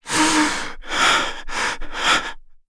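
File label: Vox Sad, English; kingsraid; voices heroes en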